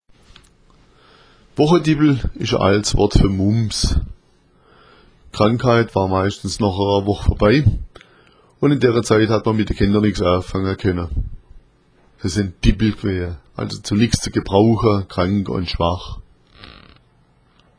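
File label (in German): "wochadippel", schwäbisch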